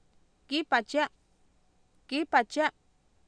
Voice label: kIipatiA, Oapan minimal pairs female; Oapan minimal pairs 2000